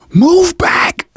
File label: backup quiet, manager, survivor, voice; Left 4 Dead 2; left4dead2 dlc2